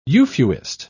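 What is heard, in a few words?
"euphuist", British English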